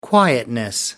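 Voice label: "quietness", British English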